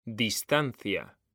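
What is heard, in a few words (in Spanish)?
"distancia", Spanish; mekanta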